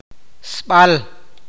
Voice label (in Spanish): "derecho", awakateko